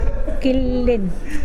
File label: "skin", Mokilese